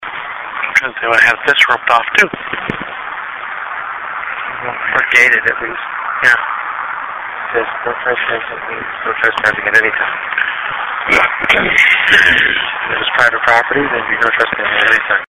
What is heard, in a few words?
male voice unaltered